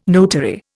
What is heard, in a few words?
"notary", Indian